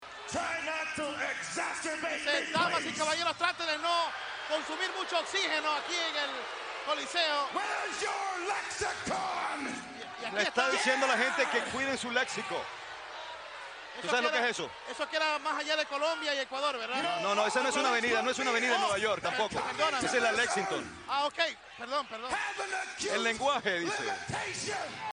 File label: spanish; exacerbate